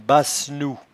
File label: "bassenou", patois jura